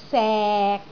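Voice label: "sek6", Cantonese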